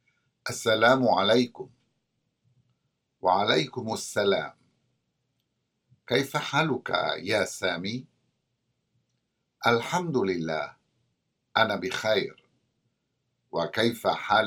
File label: Conversation; SALAMAAT